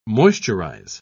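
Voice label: "moisturise", British English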